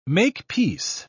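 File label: "make-peace", British English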